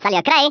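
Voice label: "I'll get you", Afrikaans accent; Speech; International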